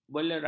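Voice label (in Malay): "belera", Malay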